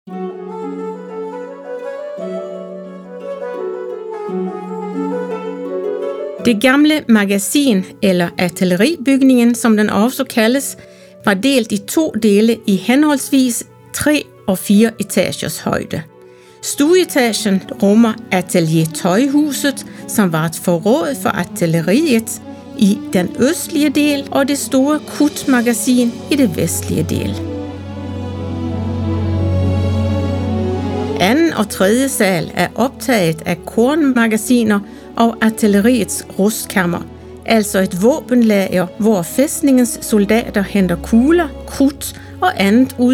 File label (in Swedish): Spot Gamla Magasinet Dansk; Spot 8 De gamle magasin; storygo